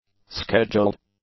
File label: "scheduled", English; adjectives